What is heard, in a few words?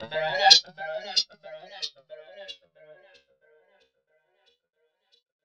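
effects talk, Funky Vocals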